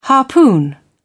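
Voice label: "harpoon", British English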